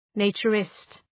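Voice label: "naturist", English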